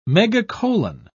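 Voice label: "megacolon", English, British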